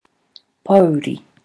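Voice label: "pouri", Māori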